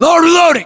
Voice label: inform reloading, US